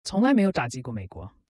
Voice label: voiceover; tornado